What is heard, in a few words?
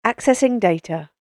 Accessing Data British Female Voice; human voice; Sci Fi Voice; spoken word; Andrew Fleming